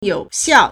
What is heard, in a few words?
"you3 xiao4", Chinese